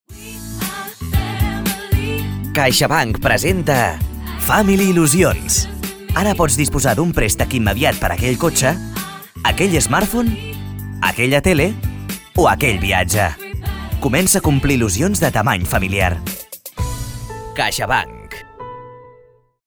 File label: CATALAN MALE COMMERCIAL